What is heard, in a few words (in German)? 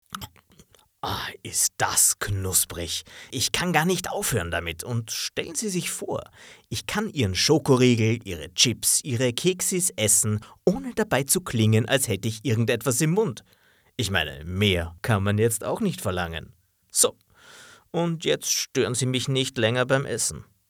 Werbespot, sprecher